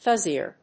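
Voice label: "fuzzier", English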